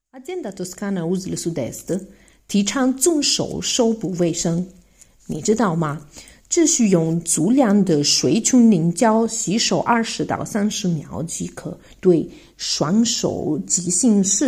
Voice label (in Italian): cinese